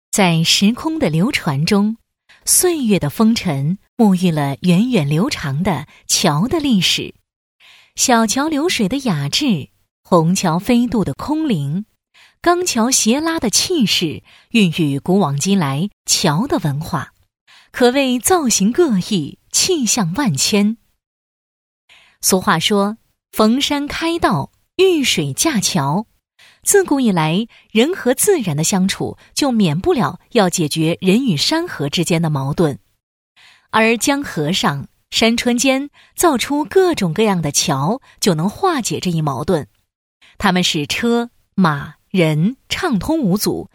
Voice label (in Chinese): 女样音; 桥的史话